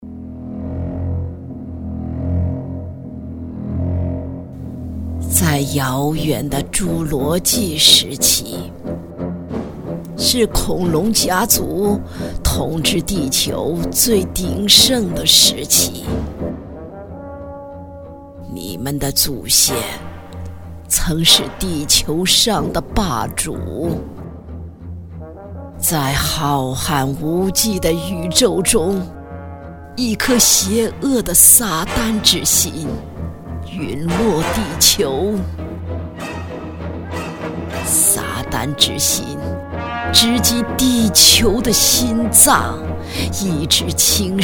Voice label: 女 老人角色 老妪角色

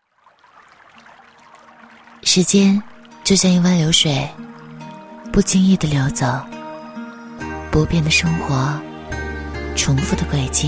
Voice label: 广告 慵懒, 磁性女配; 美即面膜; 国语女声配音

